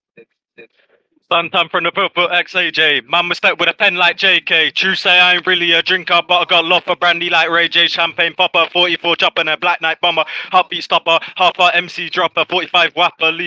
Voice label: voice message; ddnet